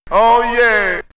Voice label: Jamaican Oh Yeah!, vox; X-Static Goldmine